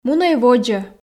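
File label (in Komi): мунӧй водзӧ, Komi, female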